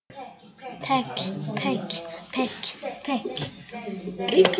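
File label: "pick", spoken word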